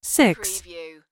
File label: US female phone prompt; lynne publishing